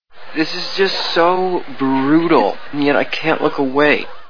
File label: brutal